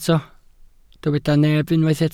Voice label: "neb", Welsh